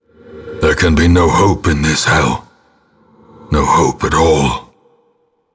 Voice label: Affliction hopeless; ancestor